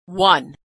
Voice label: "won", pronunciation